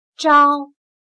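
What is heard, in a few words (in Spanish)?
"朝", chino mandarin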